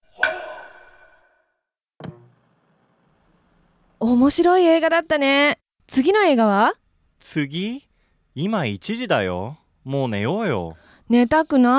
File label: dialog; JapanesePod101; Beginner Season 1